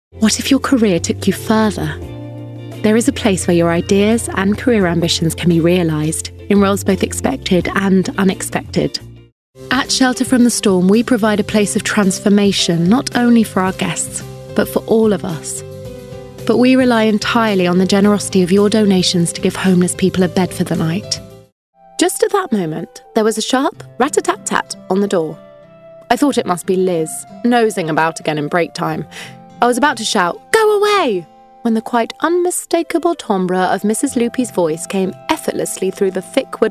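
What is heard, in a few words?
British, narration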